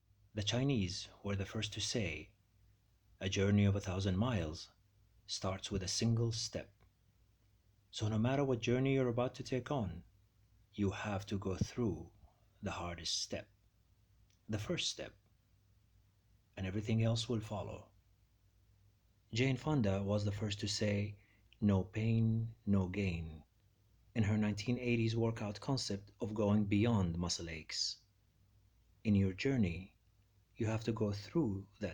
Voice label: Announcer; headshots demo vo